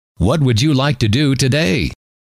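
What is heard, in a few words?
what Would You Like To Do Today, male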